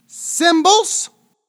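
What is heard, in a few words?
English cymbals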